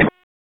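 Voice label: Police LE Ops, radio; Raleigh; LE Ops 9; wake; p25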